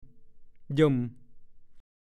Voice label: "เหยิ่ม", ภาษาโคราช; ขย่ม

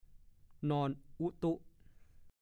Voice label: "นอนอุ๊ตุ๊", ภาษาโคราช; นอนอย่างสบาย; พจนานุกรมภาษาโคราช

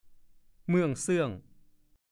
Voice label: "เมี่ยม", ภาษาโคราช; ขลับ เลื่อม เช่น ดำเมี่ยม

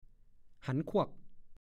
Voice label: "หั๊กดงไม่ไผ่", ภาษาโคราช; ช่วงที่ต้นไผ่สูงเต็มที่แล้วหักเพราะต้องลมแรง

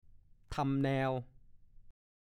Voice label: "ทำแนว", ภาษาโคราช; ทำพันธุ์

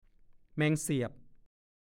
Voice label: "แมงเสียบ", ภาษาโคราช; แมลงสาบ

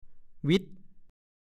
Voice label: "วิ้ด", ภาษาโคราช; คลาดกัน หวุดหวิด เฉียด

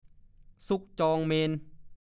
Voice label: "ซุ่กจองเมรุ", ภาษาโคราช; 1. (ซุกจองเมน) การเล่นอย่างหนึ่ง

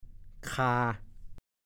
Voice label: "คา", ภาษาโคราช

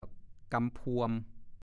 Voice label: "กำพวม", ภาษาโคราช

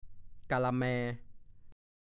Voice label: "กาละแม", ภาษาโคราช

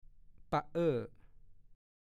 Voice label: "ป๊ะเอ้อ", ภาษาโคราช; ดูคำว่า ป๊ะ

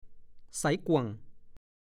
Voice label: "ใสก่วง", ภาษาโคราช; สดใส แจ่มใส

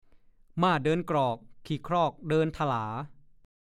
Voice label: "ม่าเดินกรอกขี่ครอกเดินถะลา", ภาษาโคราช; (สำนวน) การแปรผันไปตามยุคสมัย

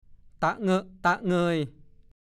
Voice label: ต่ะเง่อะต่ะเงย, ภาษาโคราช; ดูคำว่า ตะเง่อะ

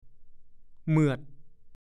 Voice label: "เหมือด", ภาษาโคราช; เนื้อสัตว์ที่ใช้ประกอบอาหาร

